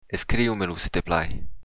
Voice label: write, occitan